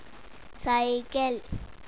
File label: bicycle, oriya words